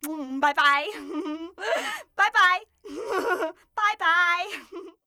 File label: "bye bye", voices